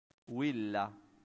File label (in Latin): "villa", latin; santillana